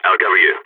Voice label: covering friend, balkan; vo

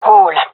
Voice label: vo agents gendarmerie fem

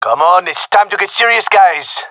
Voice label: round losing, gendermerie male

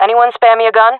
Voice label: request weapon, jungle fem; player vo; Counter Strike Model Pack; CS:GO

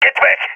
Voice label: request fallback, balkan; vo; balkan epic

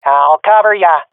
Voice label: covering friend, fem; vo; agents; Source Model Packs; Counter Strike Model Pack; CS2

